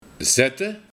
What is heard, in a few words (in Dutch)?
"Zette", de Buiting; Kaartspel